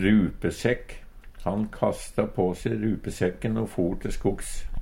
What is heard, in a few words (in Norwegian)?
rupesekk, Numedalsmål